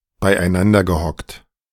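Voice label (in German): "beieinandergehockt", German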